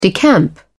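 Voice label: "decamp", English, British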